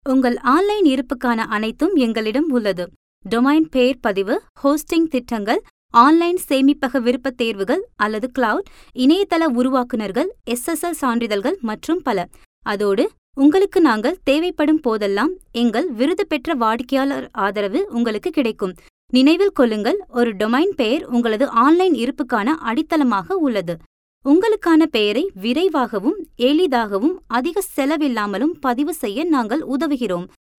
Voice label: Indian Tamil Telephone Message, Female